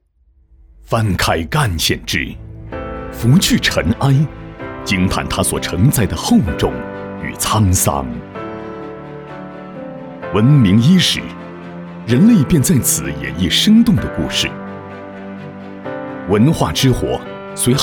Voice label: 男 专题 历史 娓娓道来; 赣县

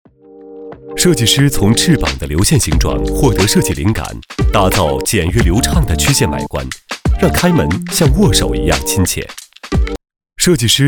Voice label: 男, 科技感; 设计师

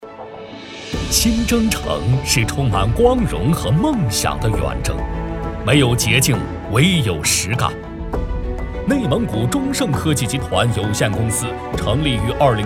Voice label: 男 企业宣传; 中盛科技集团宣传片

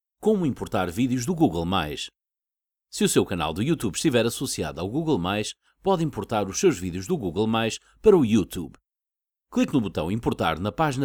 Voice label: 外籍 葡萄牙语 男 商业广告 Commercial

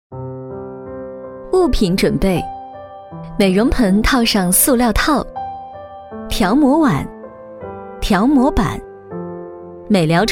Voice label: 女 专题; 肽净颜套产品操作

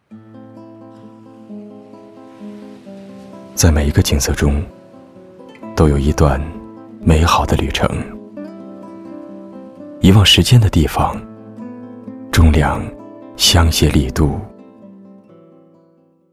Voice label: 男; 中粮-香榭丽都

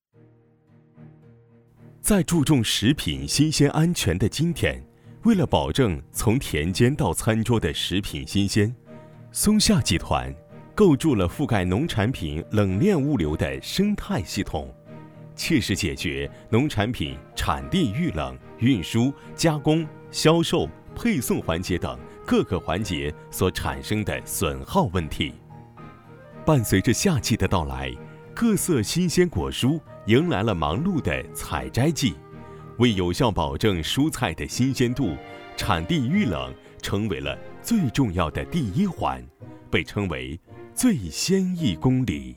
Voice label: 男 广告; 松下

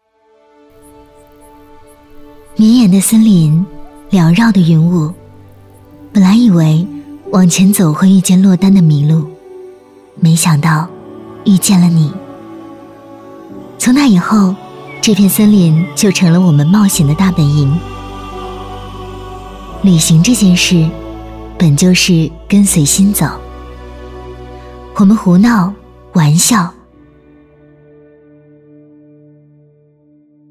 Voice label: 女 文艺 温柔质感 婚礼微电影

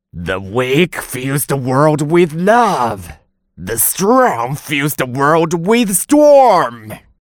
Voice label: 英男 角色; 九天雪魔

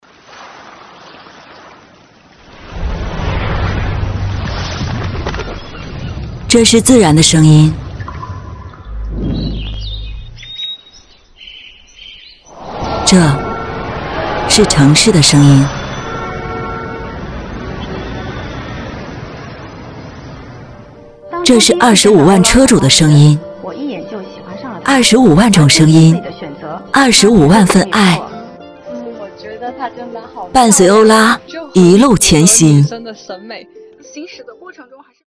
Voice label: 女 广告; 欧拉

